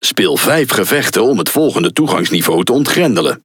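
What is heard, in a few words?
NL Speech Access Level Unlock, Dutch; World Of Warships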